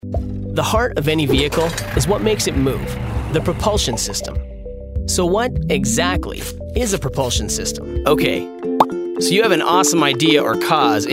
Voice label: Explainer